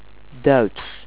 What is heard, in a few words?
"german", Dutch